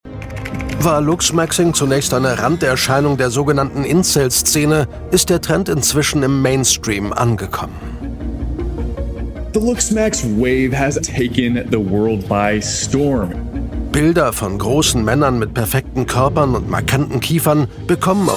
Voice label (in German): Doku, offstimme; ZDF